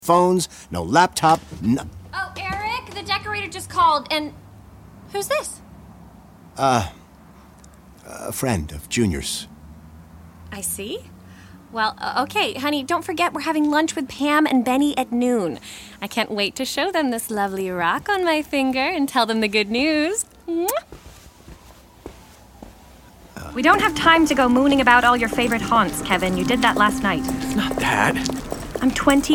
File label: Audio Drama